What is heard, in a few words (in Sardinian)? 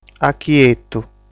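"Achietu", Sardinian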